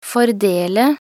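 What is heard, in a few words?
"fordele", Norwegian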